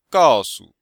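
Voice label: "gao4 su5", Mandarin Chinese; Cours de Chinois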